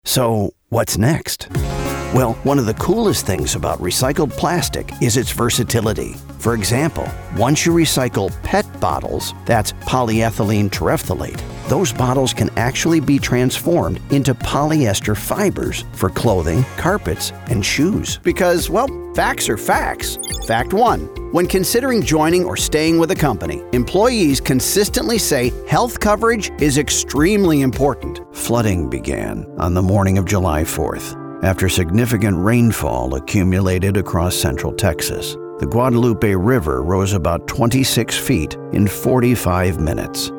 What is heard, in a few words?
Narration, Male Voice Over